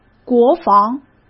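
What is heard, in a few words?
"国防" Mandarin Chinese; hsk; chinese-flashcards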